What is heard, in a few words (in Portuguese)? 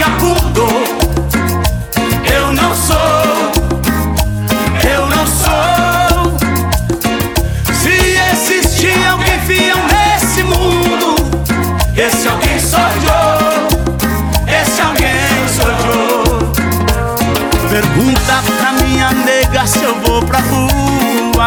locutor a voz magnifica